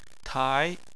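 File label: "tai", Chinese, second tone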